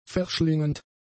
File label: "verschlingend", German; adjectives